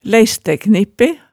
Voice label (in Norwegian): "læistæknippe", hemsedalsmaalet tale